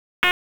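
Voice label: Dispatch, p25; WSO DISP 1; wake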